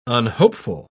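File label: "unhopeful", British English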